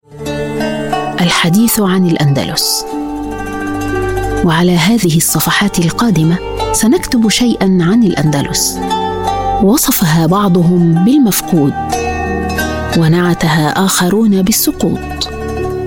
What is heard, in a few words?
arabic voice over female audiobook